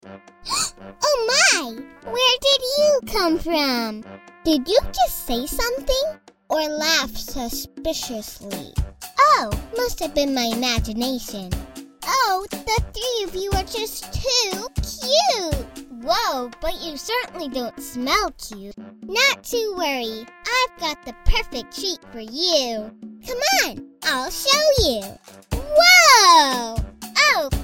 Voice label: Animation Sample